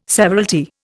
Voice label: "severalty", Indian